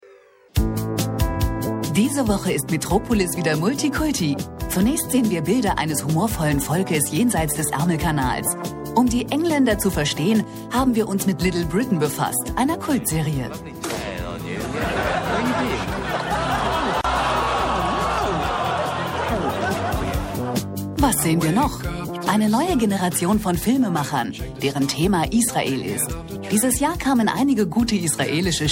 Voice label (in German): Off-Kommentar freundlich